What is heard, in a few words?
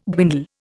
"dwindle", Indian